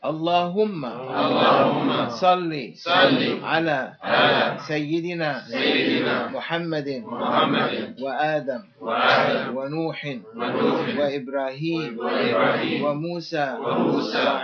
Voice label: Salawat recite 3 times; as if read whole dalailhayrat